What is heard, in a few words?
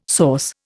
"source", Indian